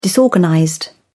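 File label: "disorganized", English, British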